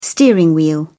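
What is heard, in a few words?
"steering wheel", British English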